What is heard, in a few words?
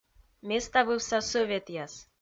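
"меставывса сӧветъяс", Komi, female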